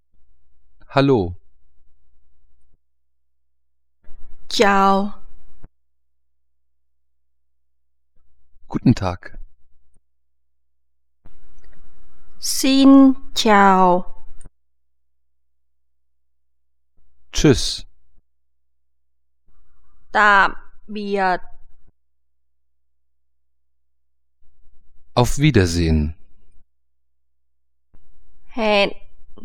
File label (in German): vietnamesisch